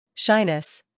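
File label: "scandal", American; shyness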